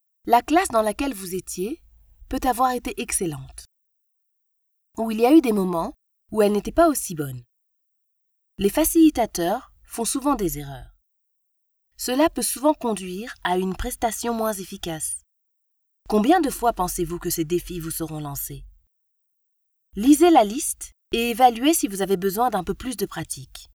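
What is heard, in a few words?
African French female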